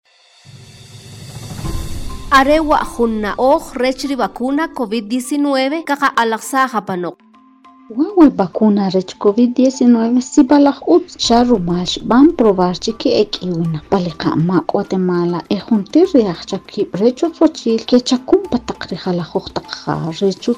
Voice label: SPOT KICHE